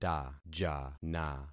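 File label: "tajana", concatenated syllables; Affixing experiment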